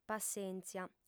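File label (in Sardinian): "passèntzia", female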